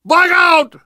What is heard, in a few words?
emphatic run, survivor voice